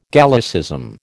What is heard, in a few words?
"Gallicism", American